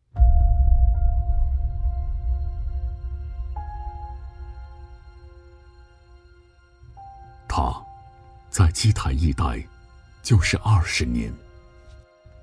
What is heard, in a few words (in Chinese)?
走心旁白 工匠精神